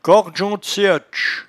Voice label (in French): "cordgeondecieutches", patois jura